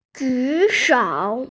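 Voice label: "jushou", Mandarin Chinese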